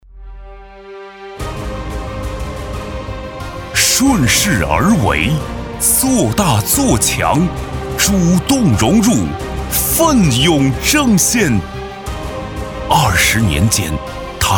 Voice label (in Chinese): 国语男 大气专题; 中建七局